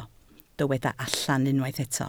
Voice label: "allan", Welsh